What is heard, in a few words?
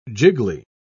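"jiggly", British English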